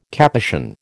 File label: "Capuchin", American